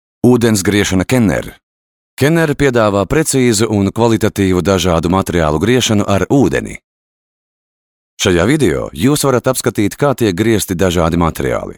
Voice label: latvian male; dictor